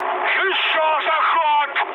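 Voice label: Russian bomb failure mood high, speech; War Thunder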